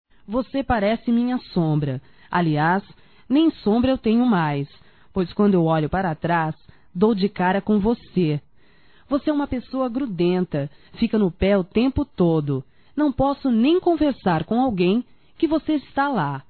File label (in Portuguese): Ciúmes Feminino; Telemensagens 2017